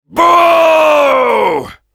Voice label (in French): Heavy jeers, fr